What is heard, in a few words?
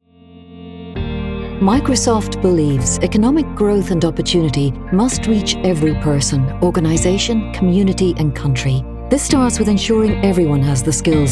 voiceover corporate showreel